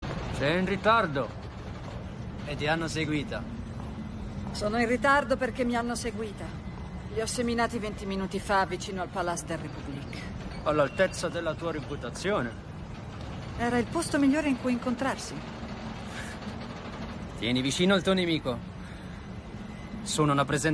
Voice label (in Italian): doppiaggio; atomic blonde